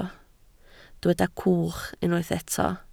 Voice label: "cwch", Welsh